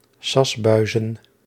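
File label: "sasbuizen", Dutch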